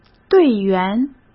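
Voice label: "队员", Mandarin Chinese; hsk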